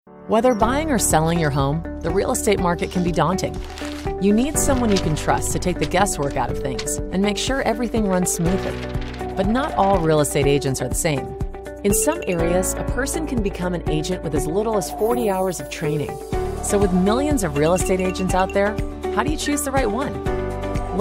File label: Voice Actor Animated Explainer; Certified Residential Specialist®